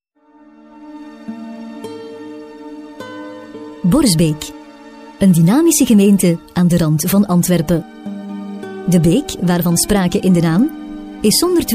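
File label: flemish female commercial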